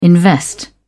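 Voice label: "invest", British English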